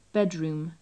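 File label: "bedroom", British English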